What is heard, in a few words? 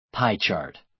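"pie chart", American English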